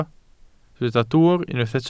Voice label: "dwwr", Welsh